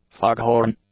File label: "foghorn", English